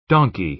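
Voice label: "donkey", American English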